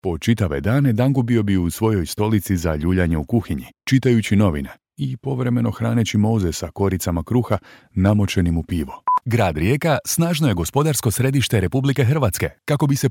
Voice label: croatian male, Male Croatian Voice